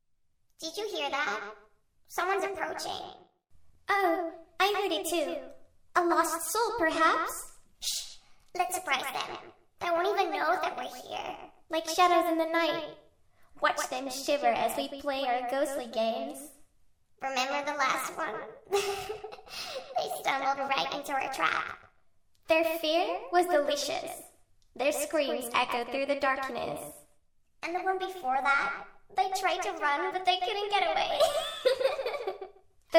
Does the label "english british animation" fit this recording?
No